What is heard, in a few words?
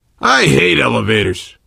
world hospital, player survivor voice biker